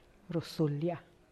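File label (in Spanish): "cardar", Teotitlán del Valle Zapotec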